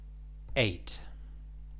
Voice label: "eight", English